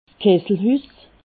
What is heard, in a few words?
"kesselhuss", alsace